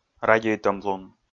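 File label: "радейтӧмлун", Komi, male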